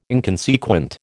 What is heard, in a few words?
"inconsequent", American